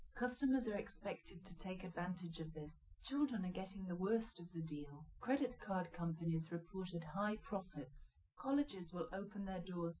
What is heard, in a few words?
female liverec; SiSEC08; SiSEC underdetermined